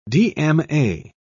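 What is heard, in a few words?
"dma", British English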